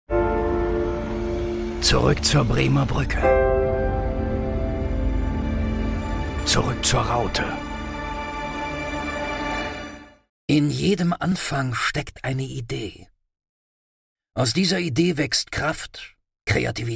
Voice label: werbung image ruhig mittelschwer

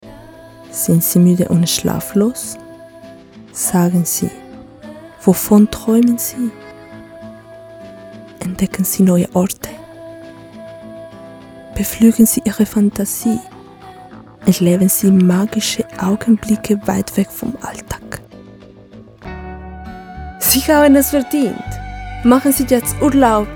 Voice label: deutsch werbung

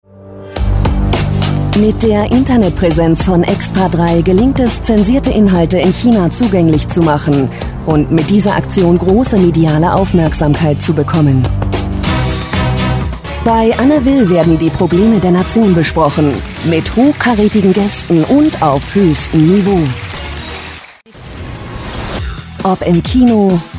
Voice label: Imagefilm kurz; ndr fernsehen

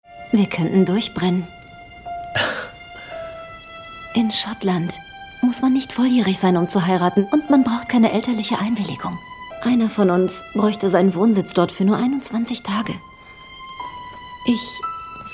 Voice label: Synchron; forsyte saga

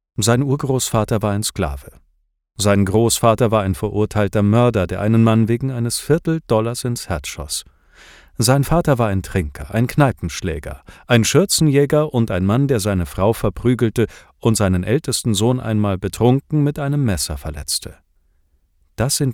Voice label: hoerbuch biographie kurz

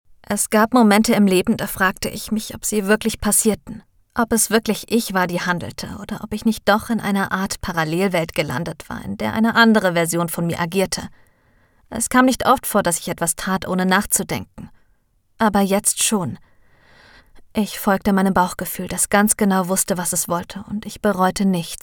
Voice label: hoerbuch new adult romance

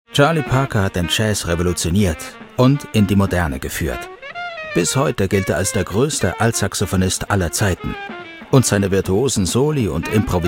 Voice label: dokumentation; arte; Muttersprache Deutsch, Deutsch/Schweizerdeutsch

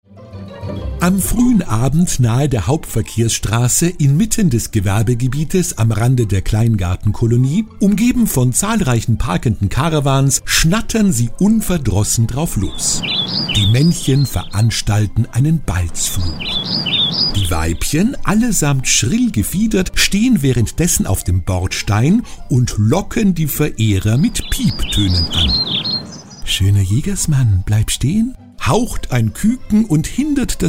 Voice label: hoerbuch

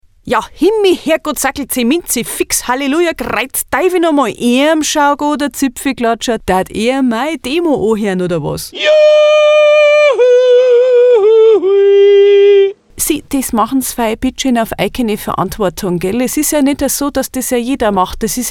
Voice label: bayerisch

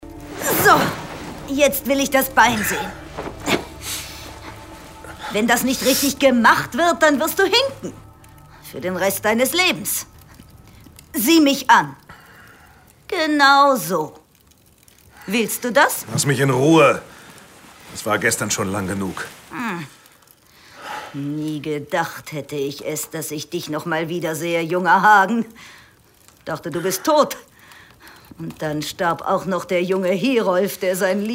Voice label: hoerspiel; der thron der nibelungen

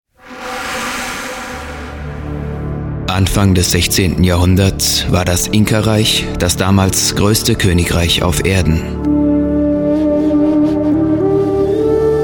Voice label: dokumentation

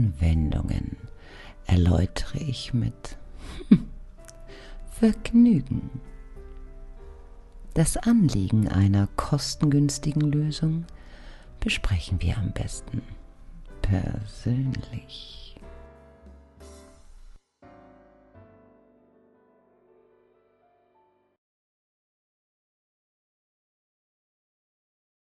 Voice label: erotik pc game

